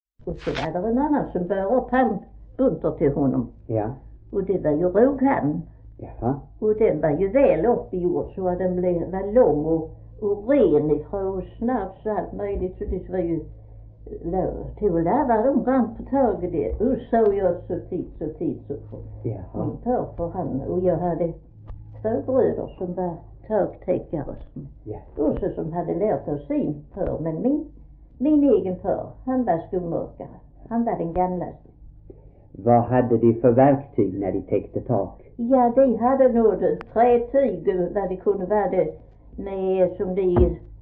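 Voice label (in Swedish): Fleninge Skåne, Svenska sagor dialekter; Folkminnen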